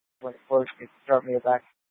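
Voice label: Incident Tac 3, Scanner audio recording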